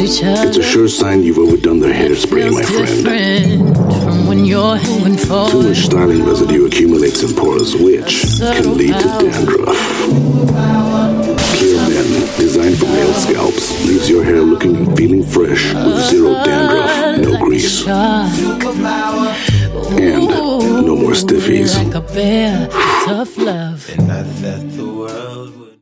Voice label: Asian English clear freshman tip 3 quiff